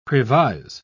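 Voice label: "previse", British English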